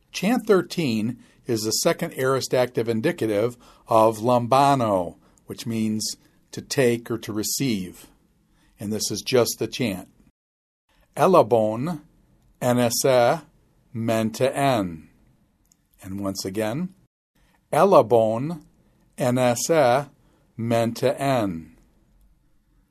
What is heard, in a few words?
Quick Chant Second Aorist Active Ind; lambanw